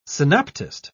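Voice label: "synoptist", British English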